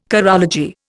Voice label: "chorology", Indian